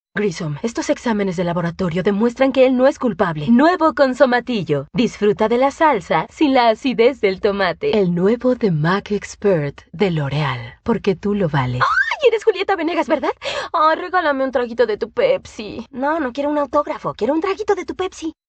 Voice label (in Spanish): Spanish South America, F